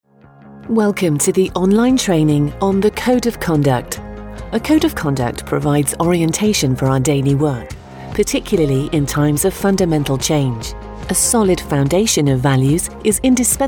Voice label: Smooth Female, elearning voices